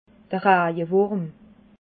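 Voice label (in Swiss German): "rajewurm", Alsatian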